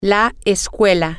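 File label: female, spanish; school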